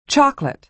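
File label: "chocolate", American English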